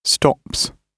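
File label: "stops", British English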